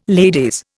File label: "ladies", Indian